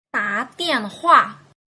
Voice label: "dadianhua", mandarin

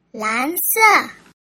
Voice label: "lan se", Mandarin

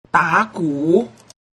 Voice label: "dagu", mandarin

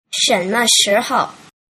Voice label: "shenmeshihou", mandarin

